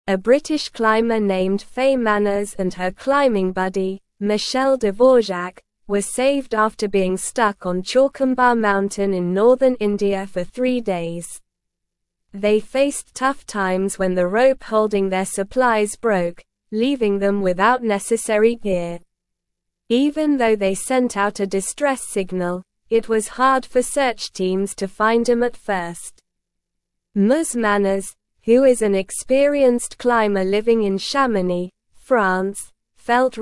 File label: English Upper Intermediate SLOW Reading; Resilience and Survival Climbers Ordeal on Himalayan Mountain